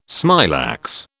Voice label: "smilax", British